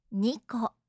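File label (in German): "niko", japanische Mädchennamen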